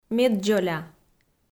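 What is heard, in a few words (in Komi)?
"меддзоля", Komi, female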